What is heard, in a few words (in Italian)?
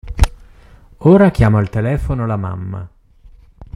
italiano